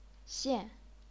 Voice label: "xian4", Chinese pinyin